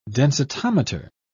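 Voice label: "densitometer", British English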